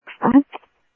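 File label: Sheriff Lake Patrol, scanner audio trunk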